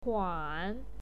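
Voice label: "tuan" tone 3, pinyin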